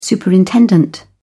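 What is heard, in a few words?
"superintendent", English, British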